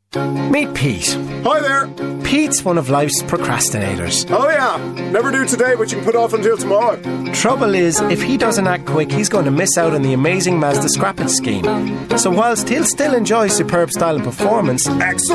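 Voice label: English Irish, male, commercial; voice demos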